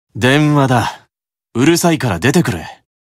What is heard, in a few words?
Call Notification Voice; Satan